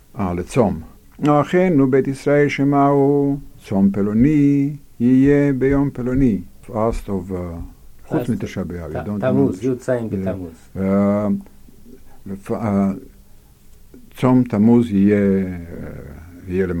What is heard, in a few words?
Announcement of fast; Shabbat Shaharit